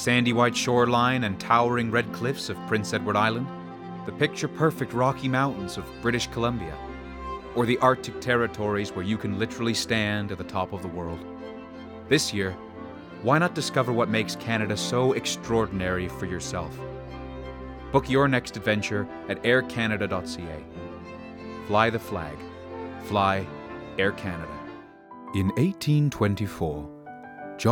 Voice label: commercial, voiceover; commercial reel